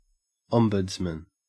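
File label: "ombudsman", Australian English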